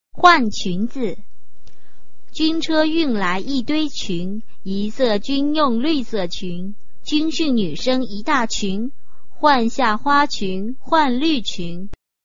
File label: chinese tongue twister